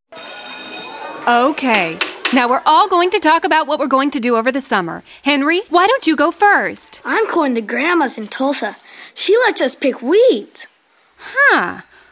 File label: Radio Ad